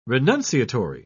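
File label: "renunciatory", English, British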